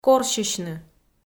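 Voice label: "корсьысьны" Komi, female; audio dict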